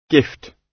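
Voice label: "gift", British English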